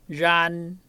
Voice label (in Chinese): rhan2, 客語, 海陸腔, 鼻尾韻; 煙; 圓; 遠; 院; 縣; •部分地區讀為rhan。